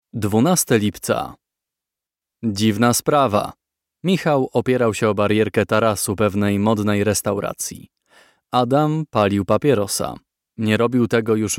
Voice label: polish voiceover audiobook